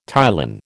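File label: "ptyalin", American English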